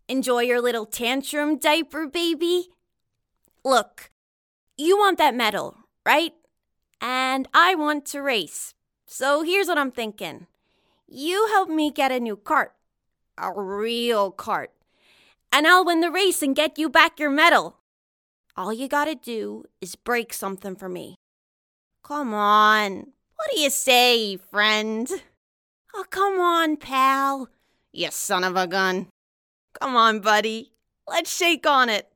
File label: Animation, 20s